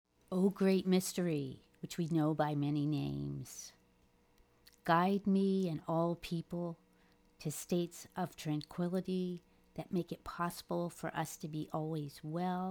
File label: Prayer for Peace Through Action Womans Voice